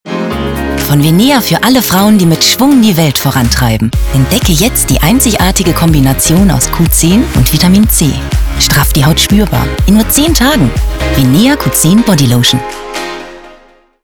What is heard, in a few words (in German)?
Werbung Bodycreme